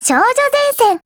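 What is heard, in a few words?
Japanese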